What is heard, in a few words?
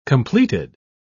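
"completed", British English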